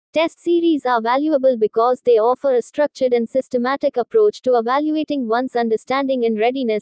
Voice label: call recording